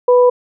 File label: "yes" clean; asr testing